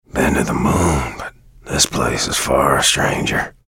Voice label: chatter neutral; bj blazkowicz

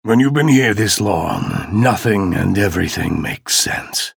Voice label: chatter negative; ranger; respawn

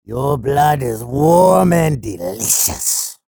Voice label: chatter neutral; sorlag

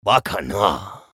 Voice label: vo chatter negative; eisen; respawn

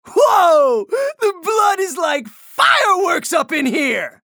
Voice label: chatter positive; anarki; frag

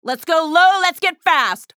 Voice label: chatter neutral; athena

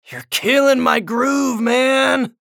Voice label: chatter negative; anarki; respawn

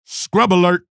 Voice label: chatter positive; keel; frag

